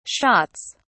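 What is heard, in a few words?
"shots", British English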